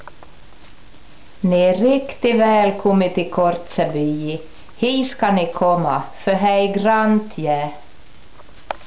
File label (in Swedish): Terjarv dialekt; Kortjarvi